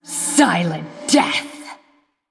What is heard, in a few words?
Female Blood Elf Attack